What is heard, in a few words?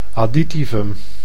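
"additivum", Dutch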